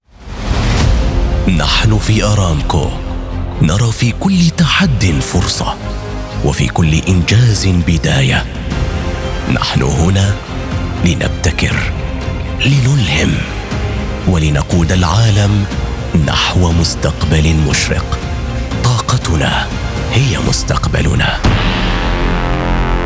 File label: Commercial Confident Proud Powerful Authoritative Standard Arabic; Aramco